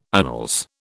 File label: "annals", British